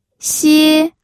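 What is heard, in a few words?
"xie1", Mandarin Chinese pinyin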